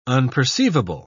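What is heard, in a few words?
"unperceivable", British English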